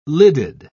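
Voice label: "lidded", British English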